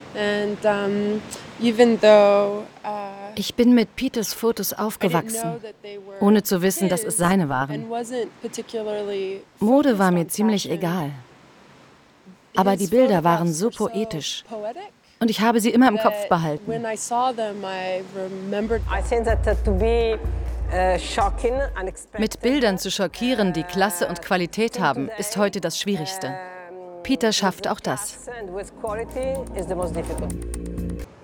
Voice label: vo doku; lindbergh

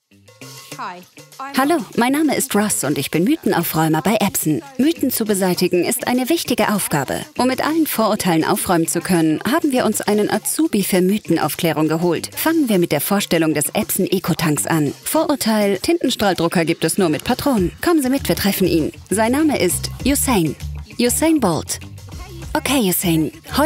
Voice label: produktvideo dynamisch temperamentvoll; epson

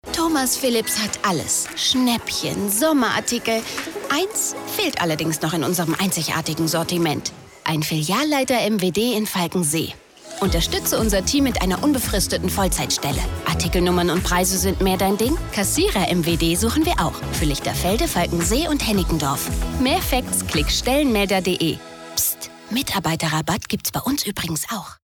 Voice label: Radiowerbung

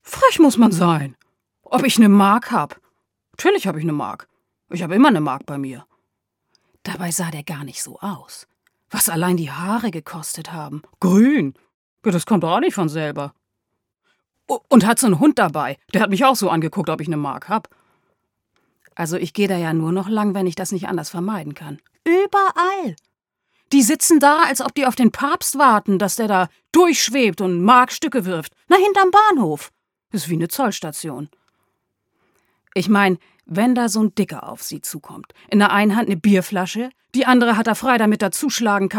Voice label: personnage norddeutsch

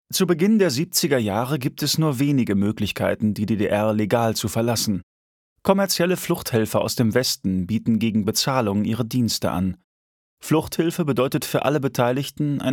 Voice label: audioguide berlinermauer

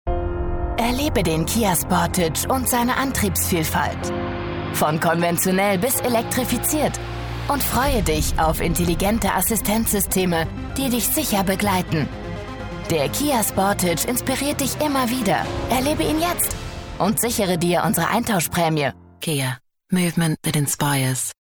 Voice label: werbung; kia

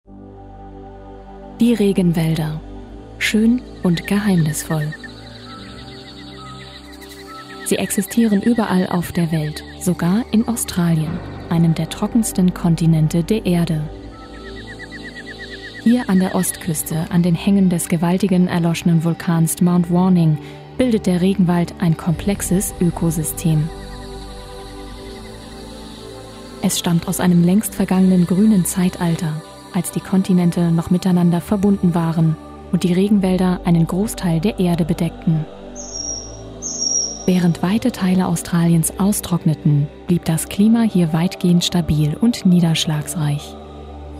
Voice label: doku neutral

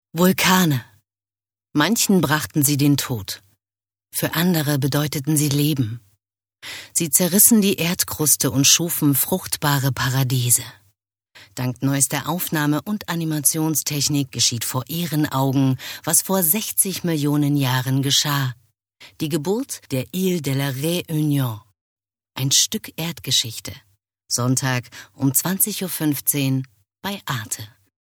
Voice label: Teaser Dokumentation

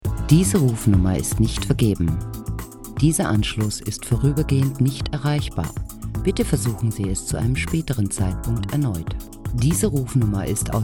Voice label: Telefonansage

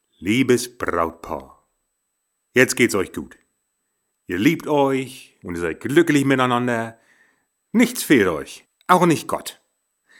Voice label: norddeutsch monolog; das kalte kind; Muttersprache Deutsch

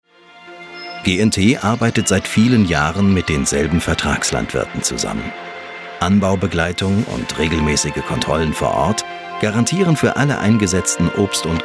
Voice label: doku deutsch

